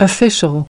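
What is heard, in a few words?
"official", English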